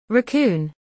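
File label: "raccoon", English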